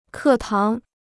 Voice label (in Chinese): "课堂", Chinese